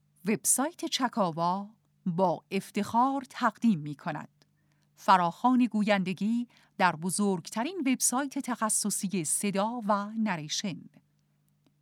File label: epic, female narrators; english